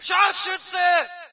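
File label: german sniper, player; video games; source engine; dod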